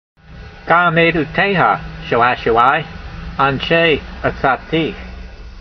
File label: voice, hebrew language; Psalm 119:24; gam-aydoteyha sha'a'shu'ai anshay a'tsatee